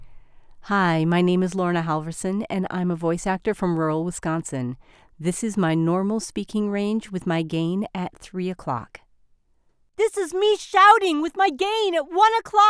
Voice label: Early Elementary Educational